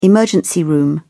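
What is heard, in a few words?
"emergency room", British English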